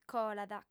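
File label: "colat", female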